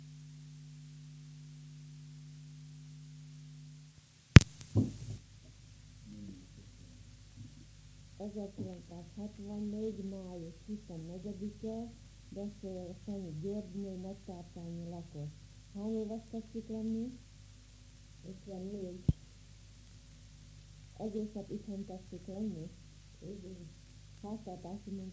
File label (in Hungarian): nyelvjaras gyujtesek